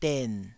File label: "den3", Taiwanese syllable